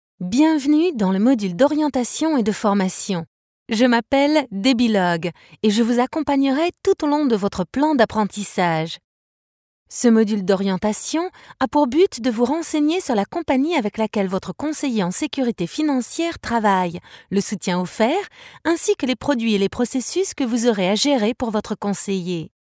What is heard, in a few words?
locutora francesa french voiceover; Voix off